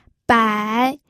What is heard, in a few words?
"bai3", pinyin